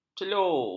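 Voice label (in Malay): "celur", Malay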